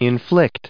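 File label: "inflict", US English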